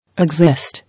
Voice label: "Exist", English

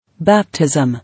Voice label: "Baptism", English

